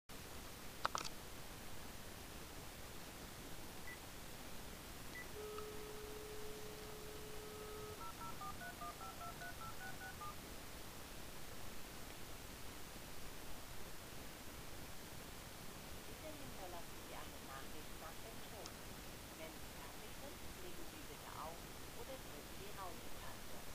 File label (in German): Voicemail